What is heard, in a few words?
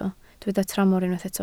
"tramor", Welsh